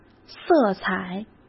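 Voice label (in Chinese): "色彩", Mandarin Chinese; chinese-flashcards; hsk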